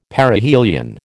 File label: "Perihelion", American